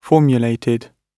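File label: "formulated", British English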